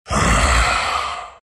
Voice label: happy; dota; earth spirit